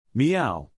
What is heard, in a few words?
"meow", English, neural; animal sounds in english; Cats; Stephen